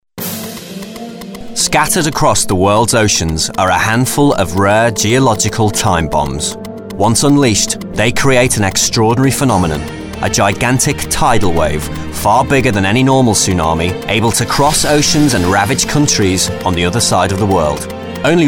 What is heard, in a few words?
Documentary Narration